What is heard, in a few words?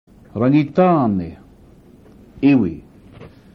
"rangitane", Maori